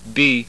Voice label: front rounded, norwegian

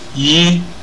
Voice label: resemble, Igbo

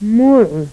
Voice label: voiced pharyngeal fricative final, agul

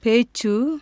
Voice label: "speech", Tamil consonants; JIPA

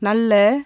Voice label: "good", Tamil consonants; JIPA

